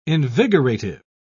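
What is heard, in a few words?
"invigorative", British English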